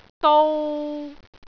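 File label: "dou3", Cantonese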